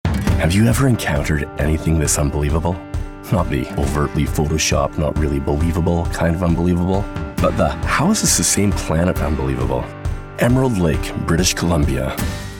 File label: Commercial, Warm · Trustworthy · Energetic; Commercial Demo Reel